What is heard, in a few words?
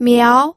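"miao", Mandarin Chinese, second tone; pinyin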